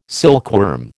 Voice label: "silkworm", American